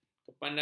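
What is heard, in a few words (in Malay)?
"kepandaian", Malay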